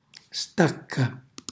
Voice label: "stacca", Calabrese; cucina calabrese